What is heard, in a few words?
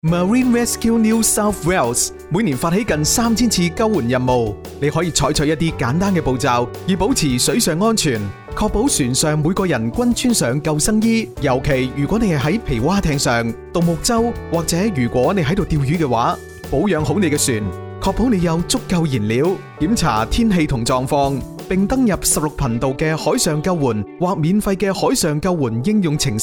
Safety Cantonese music